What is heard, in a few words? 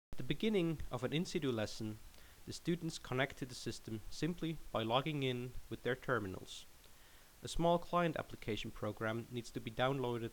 Narration